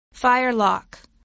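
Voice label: "firelock", English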